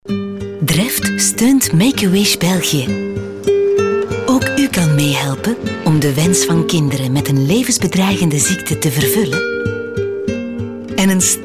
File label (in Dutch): soft; leise; Dreft